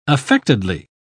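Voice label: "affectedly", English, uk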